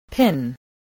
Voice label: "pin", voice, English

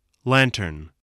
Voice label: "lantern", English

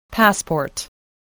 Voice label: "passport", English, voice

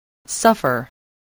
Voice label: "suffer", spelling

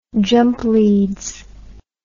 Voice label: "jump leads", English

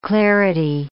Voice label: "clarity", English

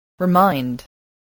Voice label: "remind", spelling voice

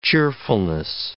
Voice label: "cheerfulness", English

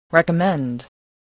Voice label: "recommend", voice, english

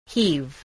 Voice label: "heave", English, spelling

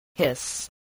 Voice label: "hiss", spelling

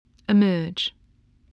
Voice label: "emerge", spelling

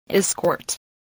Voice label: "escort", voice, english

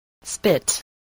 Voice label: "spit", voice

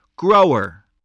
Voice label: "grower", voice

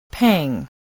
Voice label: "pang", English voice

